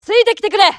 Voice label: japanese Moving; azurlane-hoi4; azurlane-tj